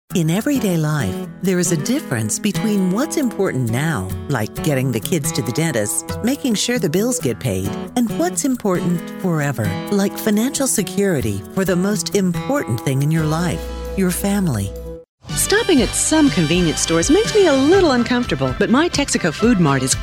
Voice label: smart, female voices for radio commercials